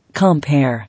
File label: "Compare", English